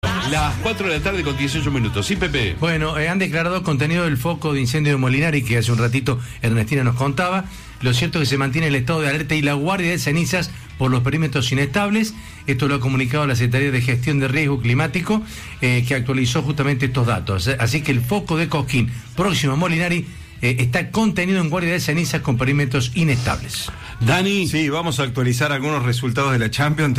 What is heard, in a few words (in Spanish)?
Radio Incendio Forestal; Argentina; Radio Mitre Cordoba; CVAMEDIOS